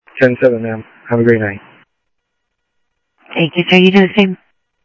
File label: Sheriff-EastCnty, scanner audio; MCSO - EAST; ThompsonPeakScot